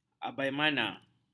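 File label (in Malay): "abaimana", Malay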